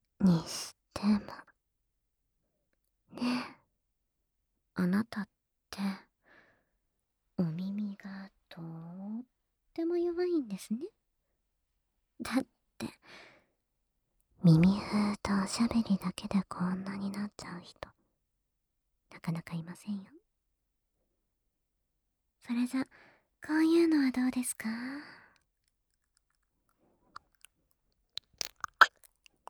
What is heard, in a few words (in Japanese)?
耳フェラ（疑似フェラ）, ASMR; 耳フェラ（疑似フェラ）で追いしこしこ責め; SEあり